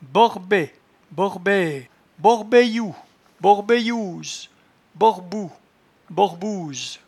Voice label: "borbeborbee", patois jura